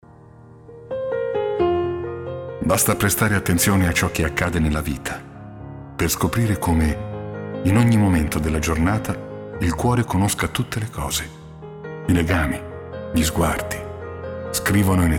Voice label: emotional

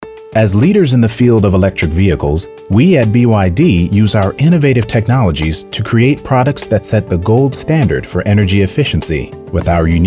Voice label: Corp Elearning IVR

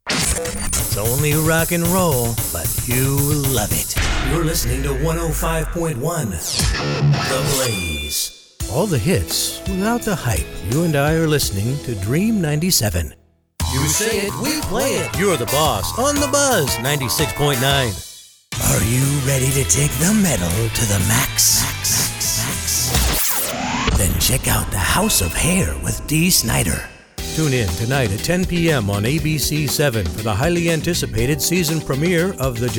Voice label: Imaging

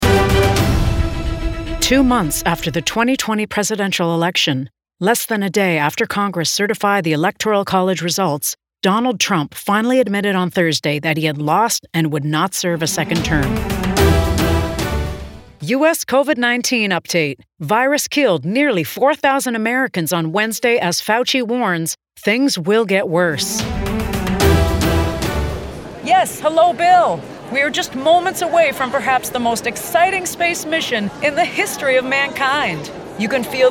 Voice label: NEWS

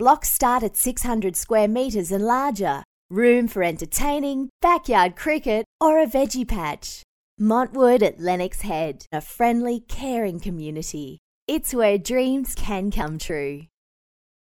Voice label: Podcast On Hold Web

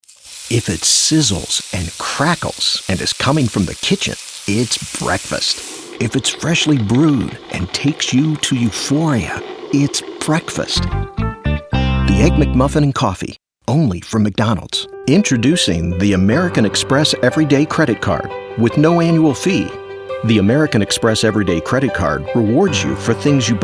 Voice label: Commercial

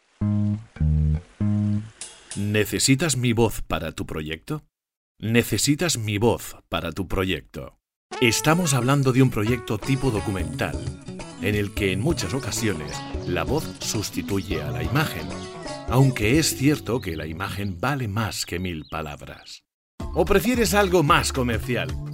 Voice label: Multi Castellano

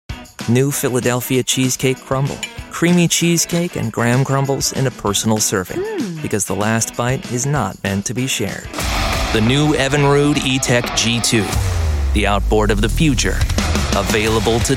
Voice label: Commercial

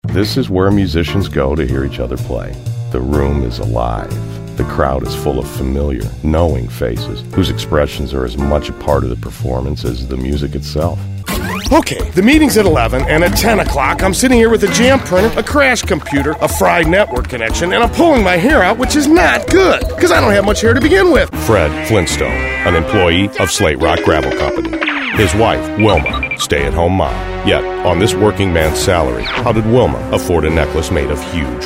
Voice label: Commercial

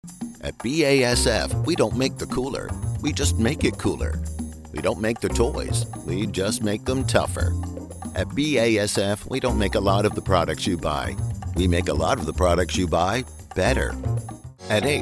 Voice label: COMMERCIAL MIXED

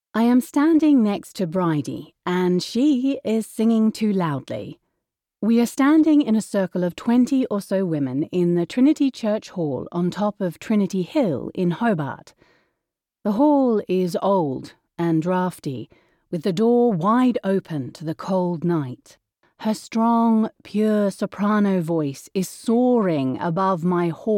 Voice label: Non Fiction Musical Australian; The Singing Cure

